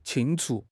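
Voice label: "清除", Mandarin Chinese; HSK6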